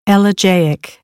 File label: "elegiac", American English; ə - ɪ